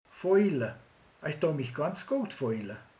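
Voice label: "érez", Hungarian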